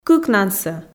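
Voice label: "кыкнансӧ", Komi, female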